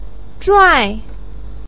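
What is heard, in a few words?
"zhuai4", Mandarin Chinese pinyin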